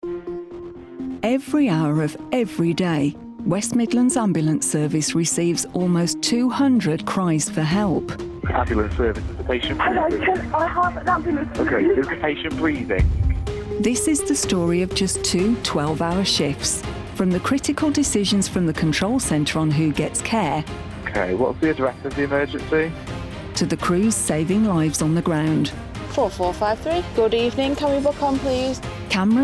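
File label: English, female; accents of england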